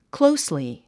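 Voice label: "closely", English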